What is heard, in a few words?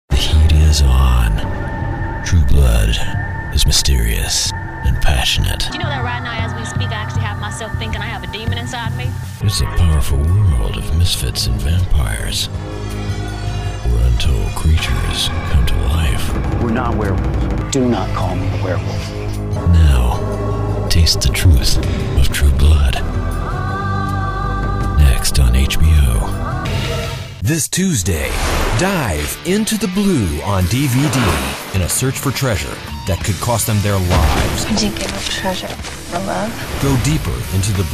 Home Entertainment Trailer